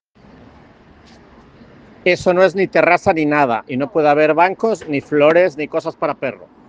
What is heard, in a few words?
Voice message